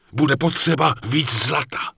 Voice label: Night Elf Male not enough money; error messages; wowpreklad; czwow